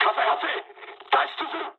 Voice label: on fire mood high, speech jp; War Thunder

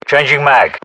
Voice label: UK inform reloading generic, battlechatter

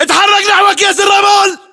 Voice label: order cover sandbags, battle chatter, english

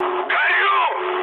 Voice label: on fire, mood med, speech ru; War Thunder

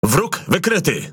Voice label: MESSAGE FOUND ENEMY SHIPS, Languages PL; World Of Warships

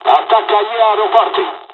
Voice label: airfields, dialogs italian; hon jpn second wave; War Thunder

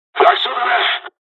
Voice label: japanese, dialogs japanese; War Thunder; first wave

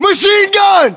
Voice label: US threat mg, battlechatter, english

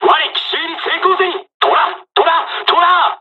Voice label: japanese first wave; dialogs; War Thunder

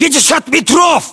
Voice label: threat distance, ru; localized english

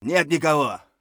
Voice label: clear, voice; Escape from Tarkov; scav1

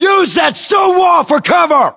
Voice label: US order cover wall stone, battlechatter, english

